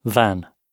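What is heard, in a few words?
"van", British English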